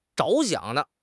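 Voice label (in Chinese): "着想", Chinese; HSK6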